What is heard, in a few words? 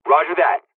dialogs turkish; War Thunder